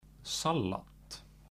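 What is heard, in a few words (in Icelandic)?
"salat", Icelandic; matarhefti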